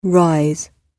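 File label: "rise", English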